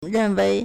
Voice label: "ren4 wei2", Chinese